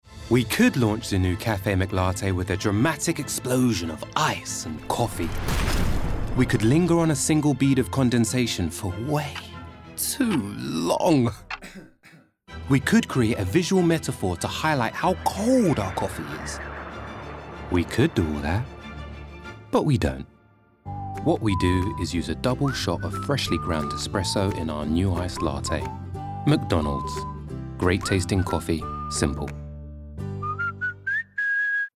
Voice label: Commercial; McDonalds